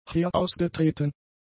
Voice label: "herausgetreten", German; adjectives